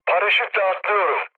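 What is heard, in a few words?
Turkish bailout, mood med, speech; War Thunder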